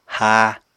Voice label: "háa", Apatani